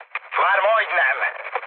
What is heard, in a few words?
near waypoint, mood high, speech hun; War Thunder